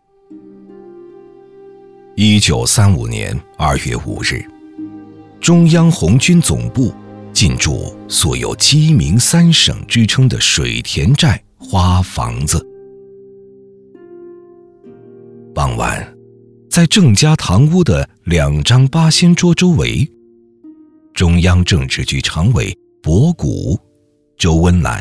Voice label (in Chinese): 男 建党百年样音 口述历史 红色花房子